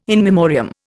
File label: "in memoriam", Indian